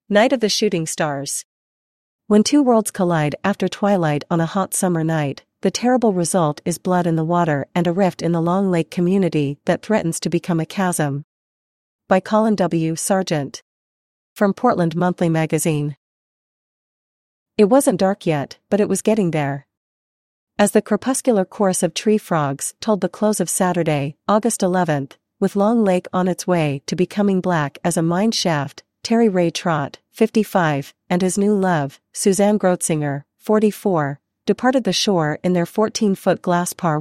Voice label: voiceover